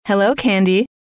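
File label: hello, female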